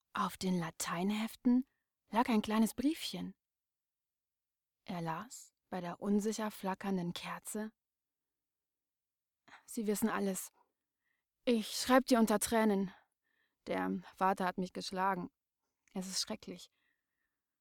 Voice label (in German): Erzaehlung; Rilke die Flucht